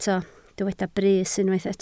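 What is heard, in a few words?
"brys" Welsh, spoken word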